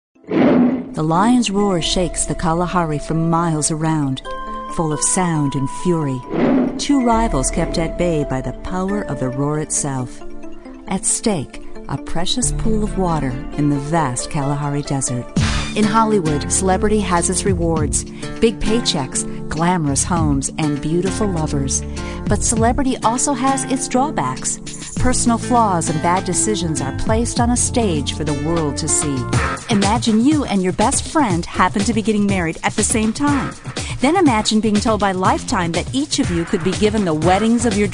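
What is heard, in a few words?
narration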